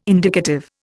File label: "indicative", Indian